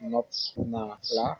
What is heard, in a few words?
i love you, wolof